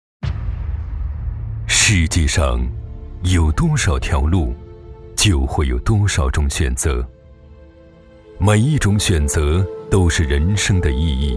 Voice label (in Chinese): 男 广告